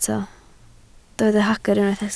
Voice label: "hagr", Welsh